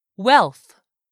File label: "wealth", English; 3000 words